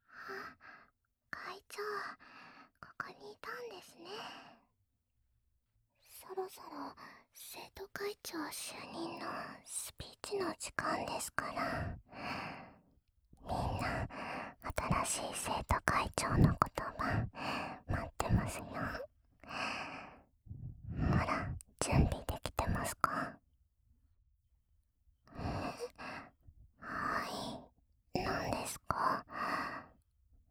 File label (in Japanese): 吐息たっぷり囁き手コキ